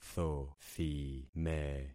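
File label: "thothime", concatenated syllables; Affixing experiment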